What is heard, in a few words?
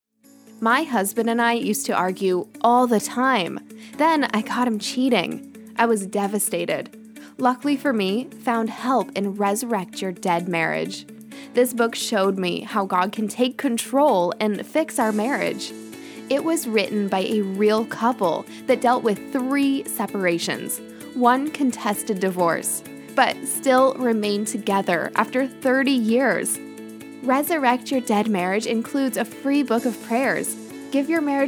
Female Voice Over Commercial